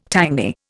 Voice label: "tangy", Indian